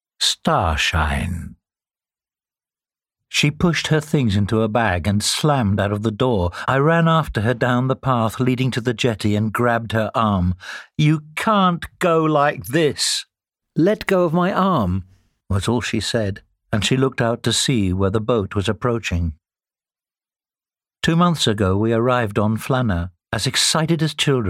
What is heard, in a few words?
Audio Book; Star Shine; ACX